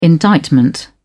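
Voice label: "indictment", British English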